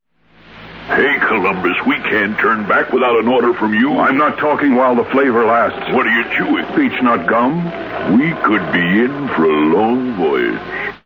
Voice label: Vintage Commercials Chewing Gum; Beech Nut Chewing Gum